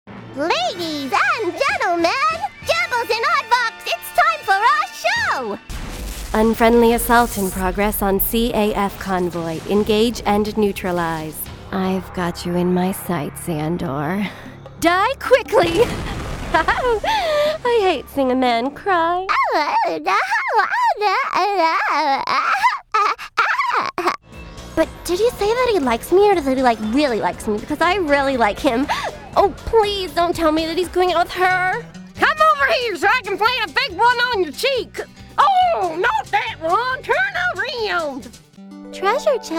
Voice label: character